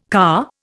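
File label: "car", Indian English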